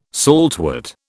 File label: "saltwort", British